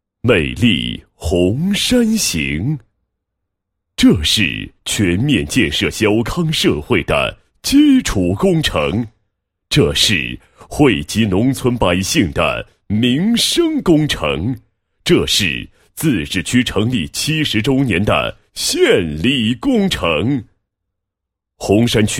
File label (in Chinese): 男 解说 县级发展规划 解说词; 全民小康